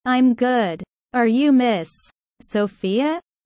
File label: low; studying abroad